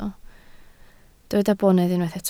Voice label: "bonedd", Welsh